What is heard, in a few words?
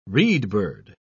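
"reedbird", English, British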